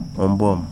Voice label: "seed", Wahgi; lae unitech

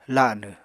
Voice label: "lanw", Apatani; [laɁnɨ] n. thumb

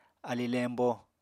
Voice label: "ali lembo", Apatani

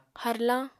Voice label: "harlañ", apatani

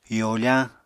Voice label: "yofañ", Apatani

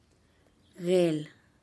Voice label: "gel" corn

